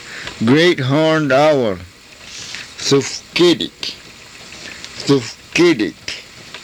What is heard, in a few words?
"thufkirik", karuk